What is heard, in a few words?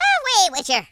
"first blood", Irish; speech